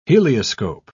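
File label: "helioscope", British English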